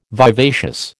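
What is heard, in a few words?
"vivacious", American English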